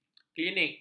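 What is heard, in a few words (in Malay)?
"klinik", Malay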